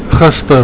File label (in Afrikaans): "gister", Afrikaans